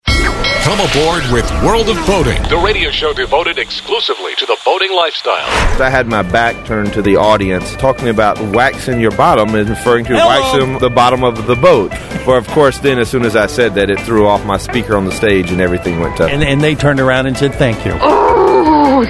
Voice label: Promo